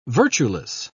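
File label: "virtueless", English, British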